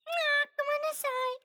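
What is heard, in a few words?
"gomenasai", Japanese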